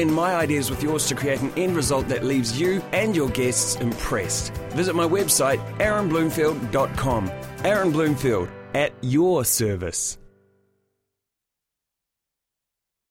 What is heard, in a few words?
wedding celebrant radio ad